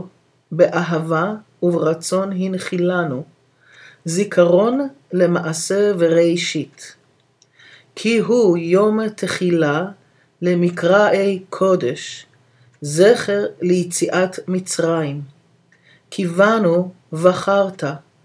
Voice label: KIDDUSH Read